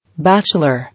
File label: "Bachelor", English